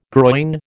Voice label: "groyne", American